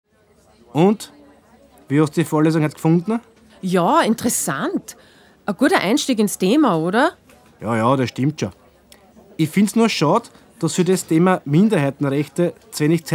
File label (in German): Dialekt; C1; Autochthone Volksgruppen